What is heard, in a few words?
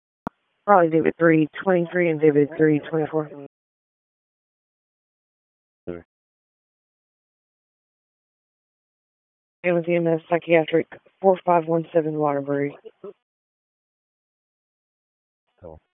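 Police Northeast District Dispatch, p25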